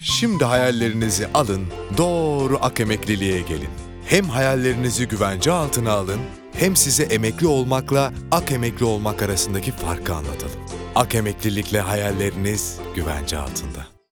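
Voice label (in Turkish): sicak samimi, seslendirme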